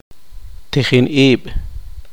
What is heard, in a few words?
poqomchi; alumno